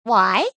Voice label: "Why" Female, Exclamation; VoiceBox

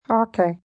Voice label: "Okay", male; Response; VoiceBox

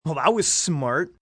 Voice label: That Was Smart, Attitude, male; Talking; Voice Box

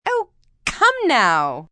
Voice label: Oh Come Now, female, sarcastic; Talking; VoiceBox

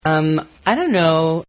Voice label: Guy Says UM I DUNNO; Talking; Voice

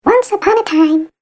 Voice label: Cartoon Game Voice "Once Upon A Time"; Cartoon Voice Sound Effects

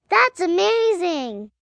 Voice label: Thats Amazing, Reaction, 1 girl, 5 yrs.; Talking

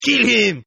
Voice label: Toughguy Kill English, Tough Hispanic Male; Exclamation

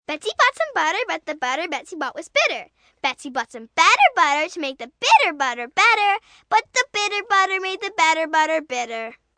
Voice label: Tongue Twister, rhyme, 1 girl, high, cute; kid; child; female; 'Betsy's Butter'; human voice; Talking

